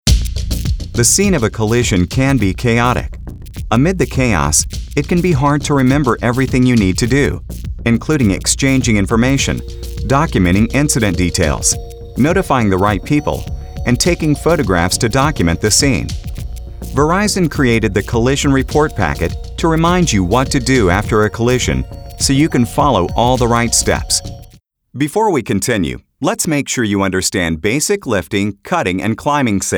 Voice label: E-Learning Narration